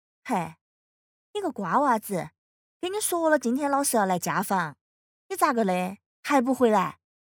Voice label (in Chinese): 四川话